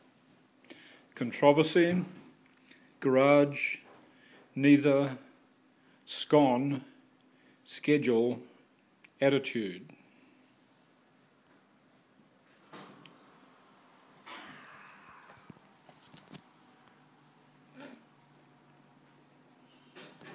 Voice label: Auckland NZ male

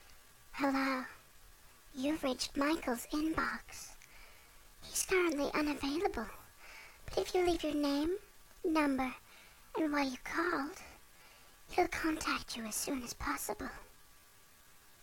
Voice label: answermachine

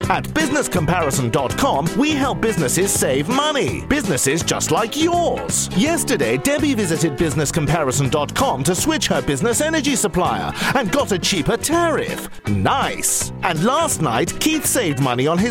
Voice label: radio advertisement; radio airtime media

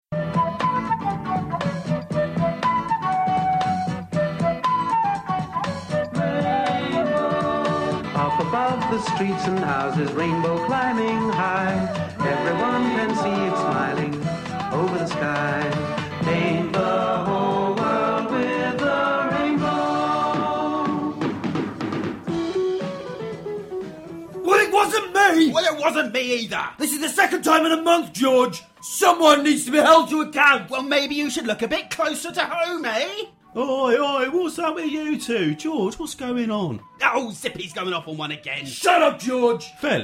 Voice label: comedy sketch

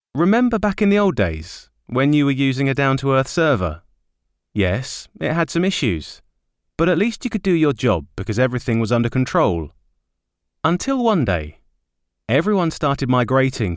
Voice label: soft and warm product voice over

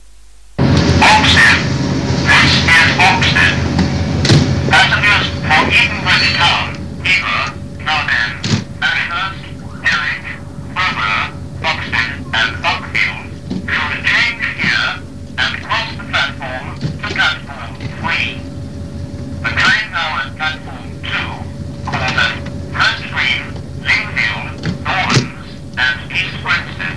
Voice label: pre recorded platform announcement, Oxted; year 1988

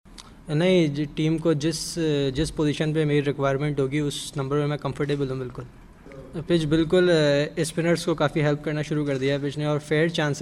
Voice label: post match media conference Urdu; pakistan s asad shafiq; second test v sri lanka day three

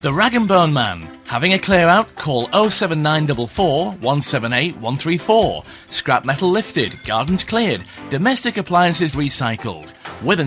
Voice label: rag bone man, withernsea and holderness

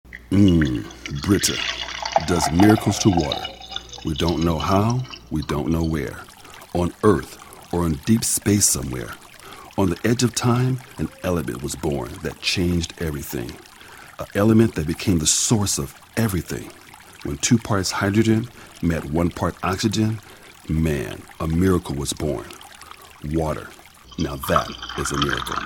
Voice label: voice over brita water

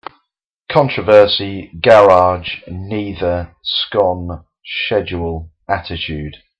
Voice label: male, Suffolk England

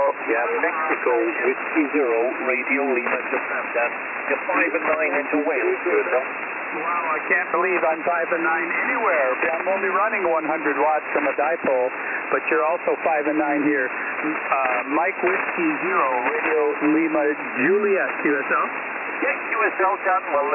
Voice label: 20m ssb; cameroon

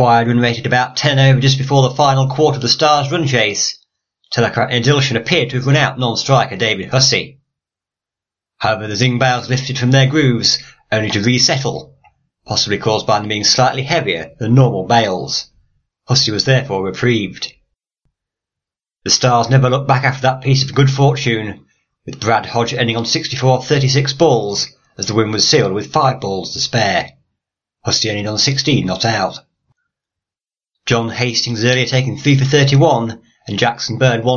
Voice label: domestic cricket podcast

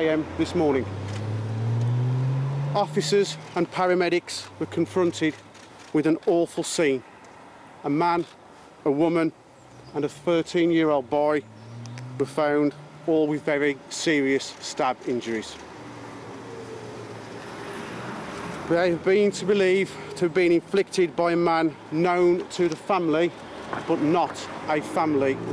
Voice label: police speak outside; black country double murder

